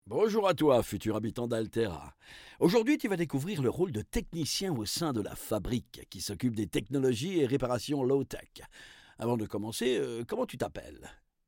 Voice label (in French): narrateur; intro prenom; La Fabrik